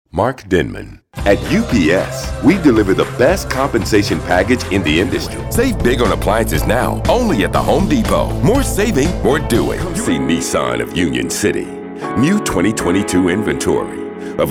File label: Voiceover